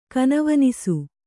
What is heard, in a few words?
"ಕನವನಿಸು", kannada